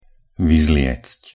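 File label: "vyzliecť", Slovak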